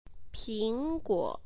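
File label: "ping guo", Mandarin Chinese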